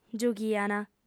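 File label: "giughiant", female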